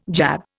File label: "jab", Indian